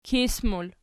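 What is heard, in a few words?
"kis" plural, Archi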